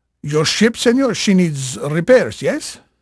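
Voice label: Spanish male, voice; Pirates Of The Caribbean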